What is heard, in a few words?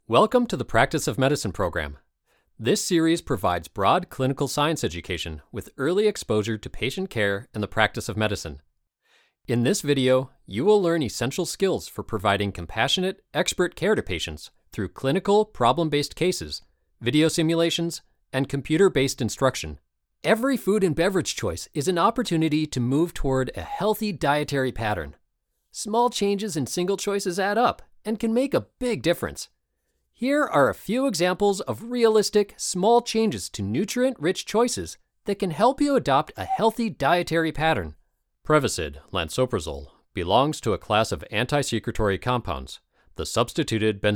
Medical Narration